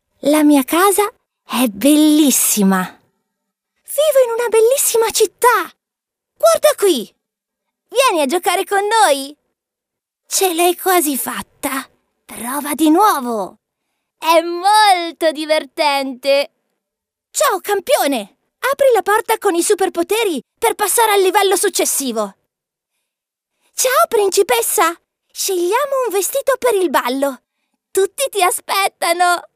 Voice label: Female Italian Toys